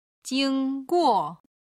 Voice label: "jing1guo4", Chinese; HSK3級

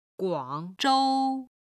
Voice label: "guang3zhou1", chinese

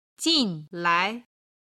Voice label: "jinlai", Chinese

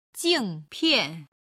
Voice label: "jingpian", chinese

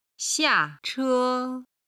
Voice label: "xiache", Chinese

